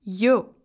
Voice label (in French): lettre yo, russe